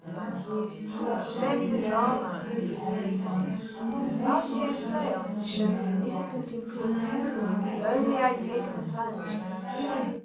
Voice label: female4 380ms 5cm, underdetermined; SiSEC11